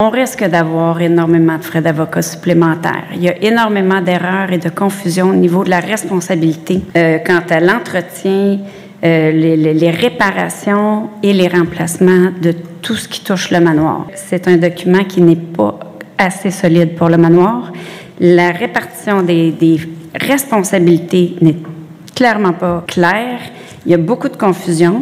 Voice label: commercial; RENAULT bail